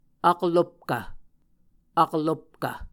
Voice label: "aklopkv", Muscogee